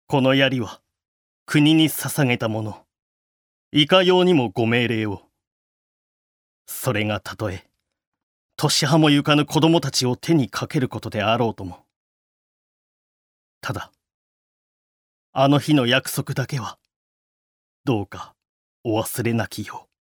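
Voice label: seikaku male warumono choiwaru; クール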